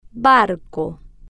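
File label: "barco", Spanish, female, slow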